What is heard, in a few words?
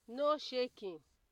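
"no shaking", Nigerian Pidgin, female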